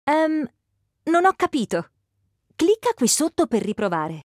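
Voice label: wake up error Italian; Bixby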